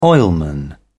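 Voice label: "oilman", British English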